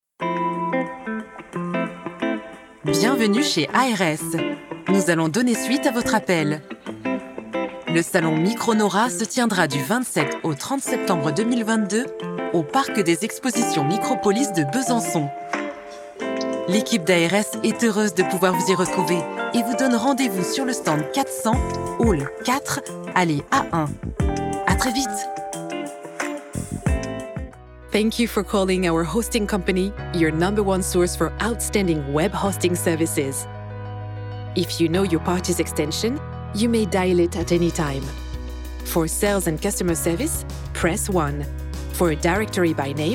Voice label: Telephone Voice Prompts Announcements, Medley, Piano; ARS et Piste anglaise; Piano fondu